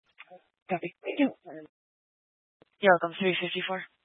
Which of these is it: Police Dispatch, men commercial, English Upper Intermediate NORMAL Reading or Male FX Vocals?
Police Dispatch